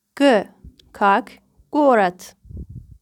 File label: russian